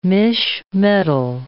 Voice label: "misch metal", English

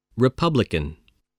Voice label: "republican", English

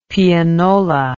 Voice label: "pianola", English

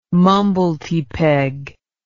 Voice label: "mumbletypeg", English

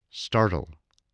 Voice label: "startle", voice

